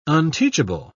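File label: "unteachable", British English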